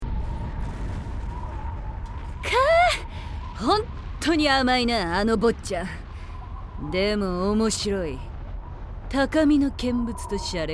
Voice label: Monologue; Guilty Gear XX; drama cd red